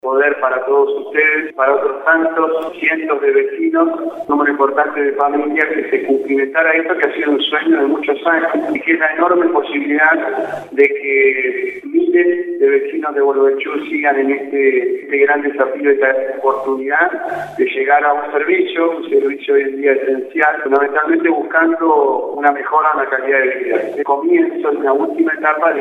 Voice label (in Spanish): ANUNCIO GAS; PIAGGIO